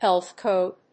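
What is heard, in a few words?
"health code", English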